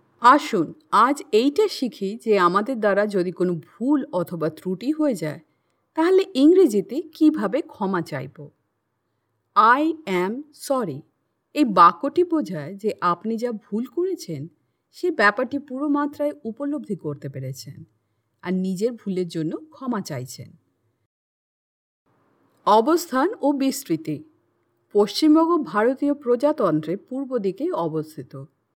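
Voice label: Bengali, female, E-Learning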